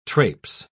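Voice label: "trapes", British English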